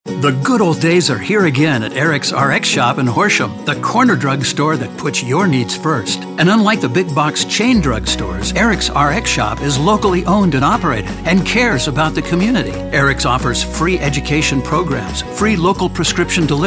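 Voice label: Corner Drugstore, reassuring midlife to older reads give you a great feeling of confidence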